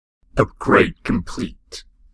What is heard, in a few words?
cyborg Upgrade complete